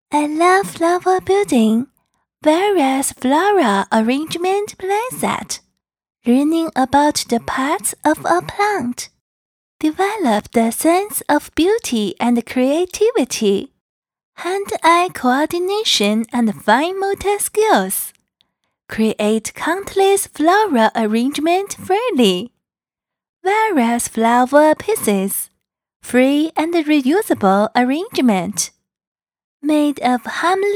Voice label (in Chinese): 插花英文童声